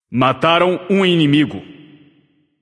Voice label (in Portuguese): notify slain enemy, vox caster portuguese